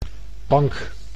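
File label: "bank", Dutch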